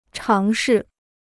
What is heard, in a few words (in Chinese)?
"尝试", Chinese